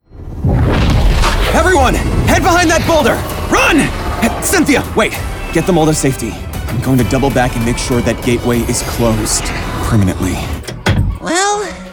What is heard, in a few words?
animation men, voices men